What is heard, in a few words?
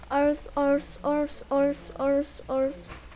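"also", spoken word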